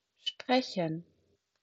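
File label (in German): "sprechen", German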